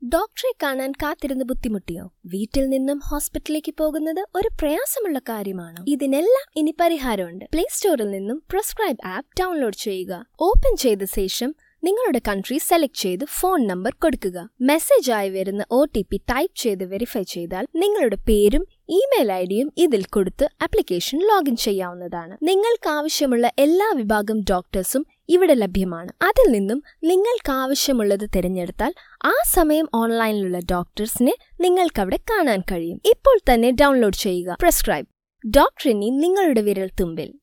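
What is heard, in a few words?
Explainer Malayalam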